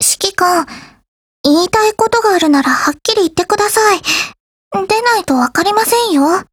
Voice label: DIALOGUE, JP

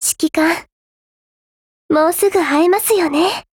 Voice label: LOADING JP

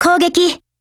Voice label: ATTACK JP; G3Mod

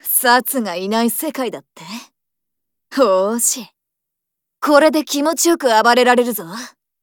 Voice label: DIALOGUE, JP

